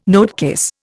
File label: "notecase", Indian